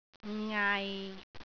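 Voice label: "ngai6", Cantonese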